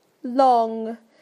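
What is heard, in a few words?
"long", British English; PictureDictionary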